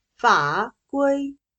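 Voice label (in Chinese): "法规", Chinese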